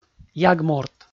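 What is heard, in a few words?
"яг-морт", Komi, male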